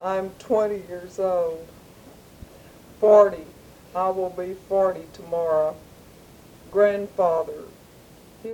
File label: Personal history and reading by 49 year old white female Texas; american english dialect